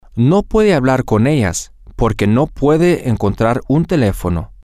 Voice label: he can't talk with them because he can't find a telephone, spanish, M